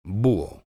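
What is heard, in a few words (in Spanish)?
"buho", Spanish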